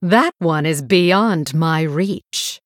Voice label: Female Blood Elf ERROR TARGET

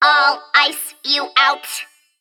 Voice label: Female Mech Attack

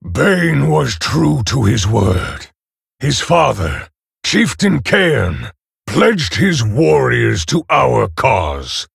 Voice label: Male Orc Ogre

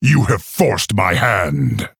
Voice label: Male Orc Threaten

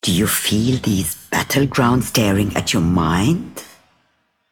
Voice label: Female Broker Flavor

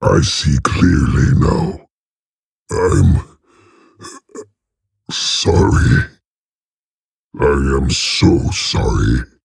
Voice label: Male Orc; Grommash; Jaina; Victory

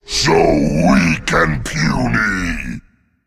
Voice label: Male Demon Attack

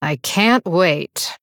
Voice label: Female Blood Elf Victory; Valeera

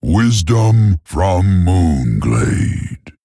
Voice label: Male Tauren; MOONGLADE PORTAL

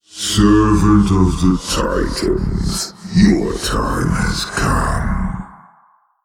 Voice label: Male Old God START; THUNDERKING

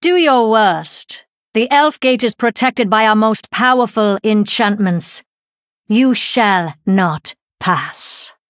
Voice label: Female Blood Elf

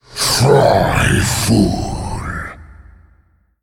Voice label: Male Faceless Attack

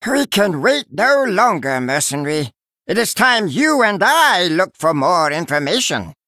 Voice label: Male Murloc; Finley

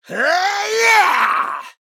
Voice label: Male Dwarf Attack

